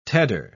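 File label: "tedder", British English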